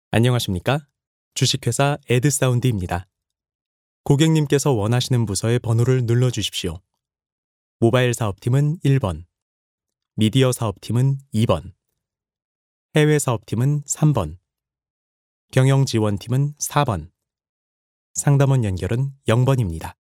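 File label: Korean male; ARS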